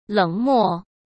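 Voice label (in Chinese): "冷漠", Mandarin Chinese; hsk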